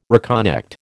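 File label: "reconnect", American English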